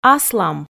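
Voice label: "аслам", Komi, female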